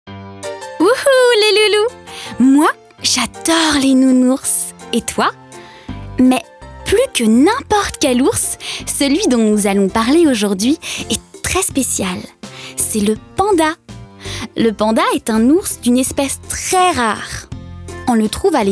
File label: documentary; petitloup